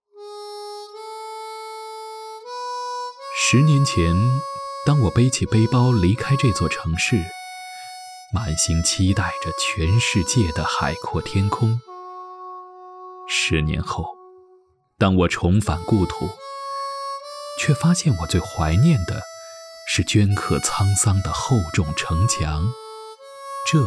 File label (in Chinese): 年轻自然讲述叙述; 万州城墙样音